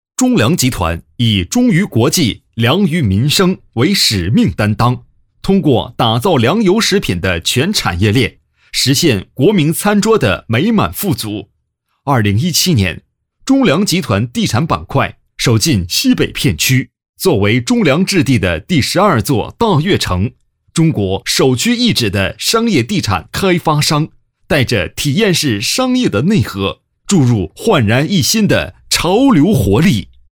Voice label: 男 专题; 中粮集团

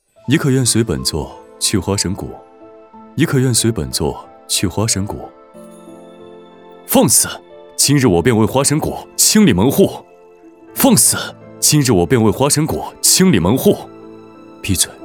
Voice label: 男 古风游戏npc 花神谷男角色

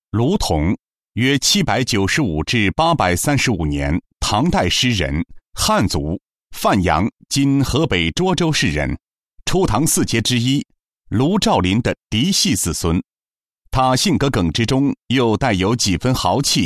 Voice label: 男 专题 人物

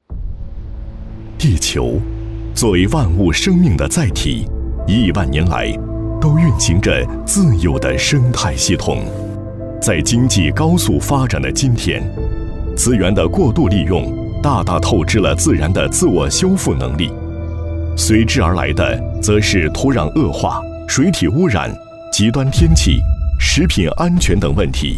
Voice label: 男 旁白 地球

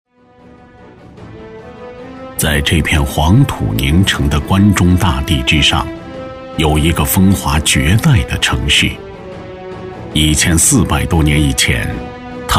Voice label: 男 大型史诗系列纪录片; 唐十八陵

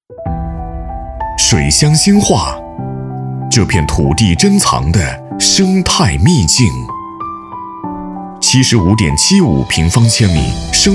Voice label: 男 专题宣传 大气磁性稳重; 自然环境

